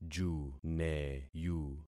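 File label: "juneyu", concatenated syllables; Affixing experiment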